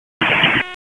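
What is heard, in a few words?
FIRE Operations, p25; FIRE OPS 2; wake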